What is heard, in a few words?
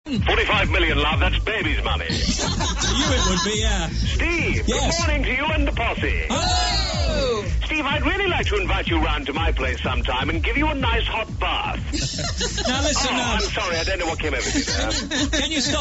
voiceover man as dentist; steve wright